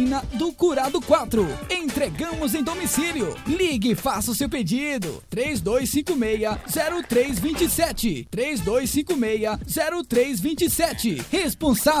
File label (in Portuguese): spot restaurante mao de vaca radio